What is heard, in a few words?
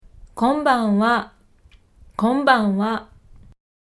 "konban", kansaiben